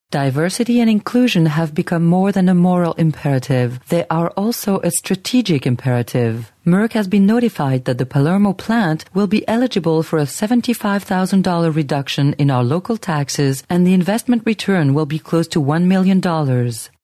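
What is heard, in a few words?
French, female, English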